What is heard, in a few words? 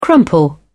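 "crumple", British English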